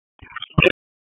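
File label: Police Spec Ops Tac, radio; Raleigh; p25; wake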